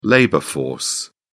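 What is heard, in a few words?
"labor force", British English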